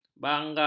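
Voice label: "bangar", Malay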